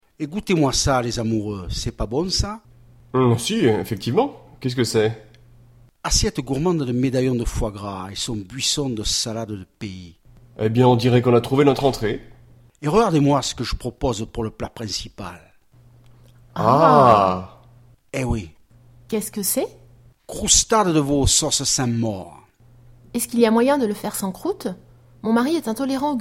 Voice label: dialogue, French